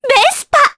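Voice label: Vox Japanese; Mediana; Vespa; kingsraid; jp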